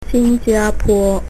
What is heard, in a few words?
singapore mandarin